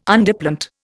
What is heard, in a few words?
"Underplant", Indian